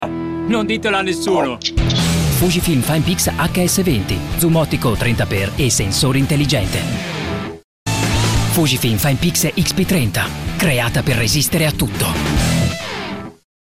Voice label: TV spot; Fujifilm